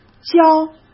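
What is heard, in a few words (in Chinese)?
"教", Mandarin Chinese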